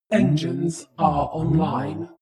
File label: shuttle female status report